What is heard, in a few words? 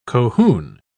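"cohune", British English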